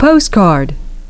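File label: "postcard", English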